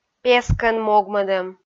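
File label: "пескӧн могмӧдӧм", Komi, female; audio dict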